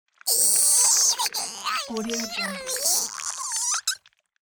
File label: alien baby voice